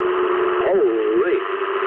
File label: eng trick mood low, speech eng; War Thunder